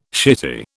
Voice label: "shitty", British